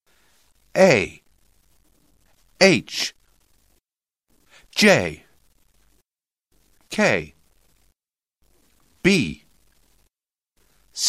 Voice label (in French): Alphabet ordonne